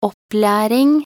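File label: "opplæring", Norwegian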